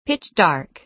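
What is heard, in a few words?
"pitch-dark", American English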